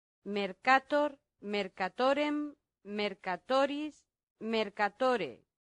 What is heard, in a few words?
"mercator", latin; santillana